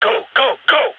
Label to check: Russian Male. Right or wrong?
Wrong